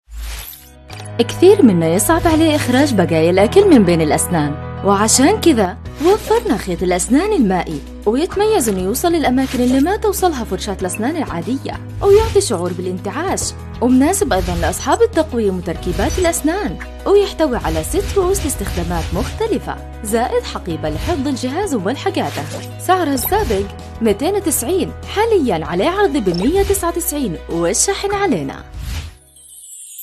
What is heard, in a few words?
saudi